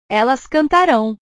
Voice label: "elas cantarao", portugues; portugues/verbos

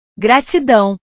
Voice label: "gratidão", portugues

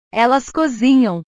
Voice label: "elas cozinham", portugues; cozinhar; portugues/verbos

